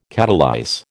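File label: "catalyse", American English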